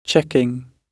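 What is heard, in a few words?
"checking", British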